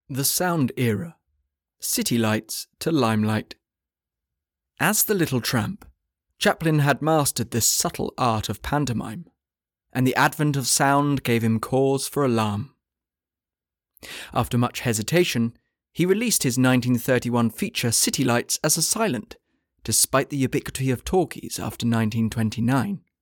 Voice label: Language Reel English

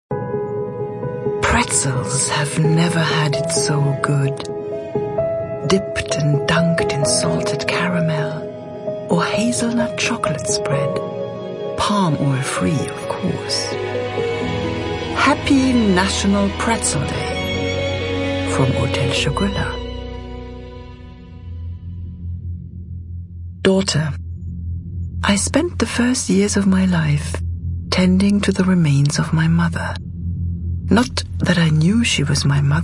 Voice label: Voicereel English

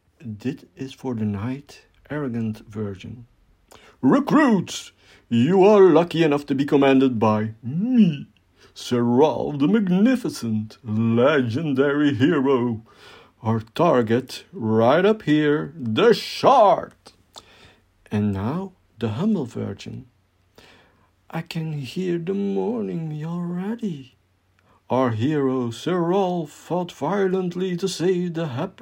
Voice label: English and soft version; Knight

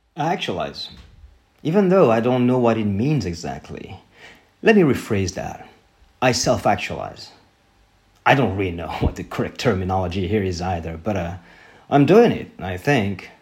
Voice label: US English

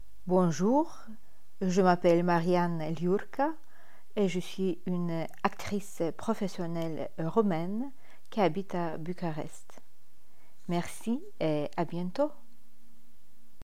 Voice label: fr

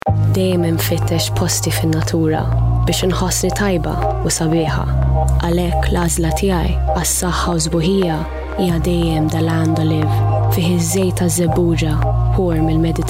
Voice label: Maltese Voice Reel

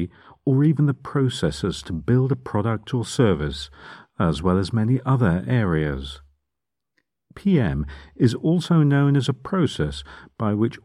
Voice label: neutral management

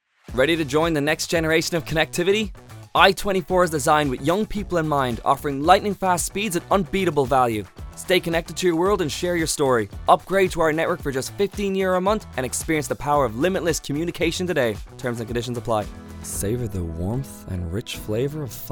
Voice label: commercial voice over

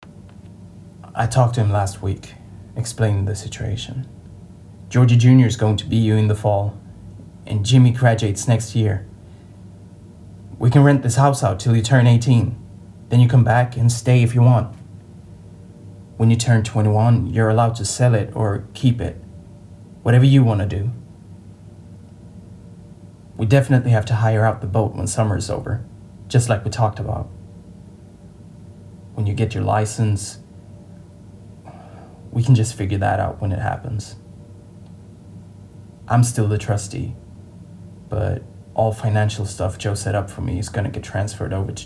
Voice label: american

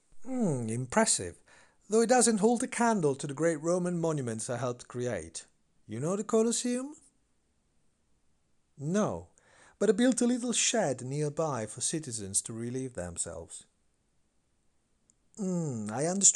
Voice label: British English Voice Reel

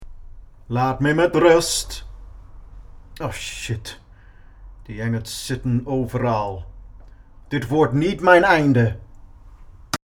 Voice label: Dutch

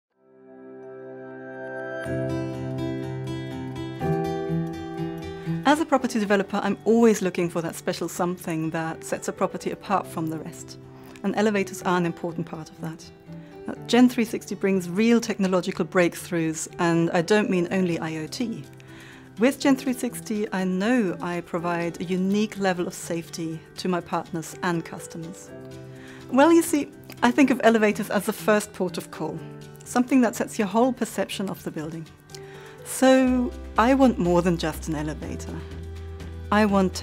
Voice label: Advert; OTIS

